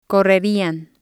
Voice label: "correrian", spanish